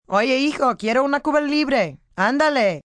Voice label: Rum And Coke, Restaurant, bar, foreign, female, Spanish; Talking Sound Effects; Sound Effects:Voice; VoiceBox